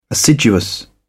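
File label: "assiduous", British English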